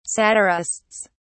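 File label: "satirists", British English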